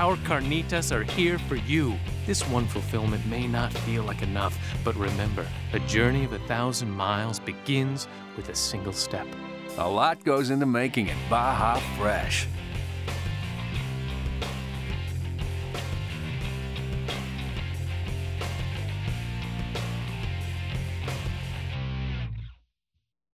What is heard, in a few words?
male, radio; baja fresh